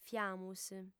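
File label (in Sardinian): "fiamus", female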